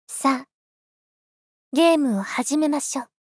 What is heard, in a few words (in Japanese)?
"さあ、ゲームを始めましょう", 大人×中音域